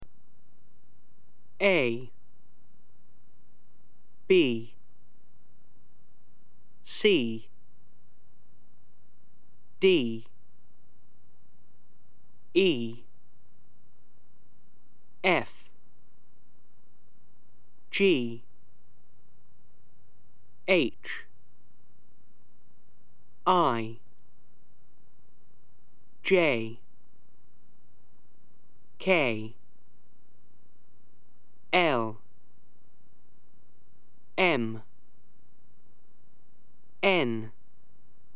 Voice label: alphabet, pronunciation